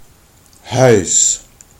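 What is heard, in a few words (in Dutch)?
"ui", Dutch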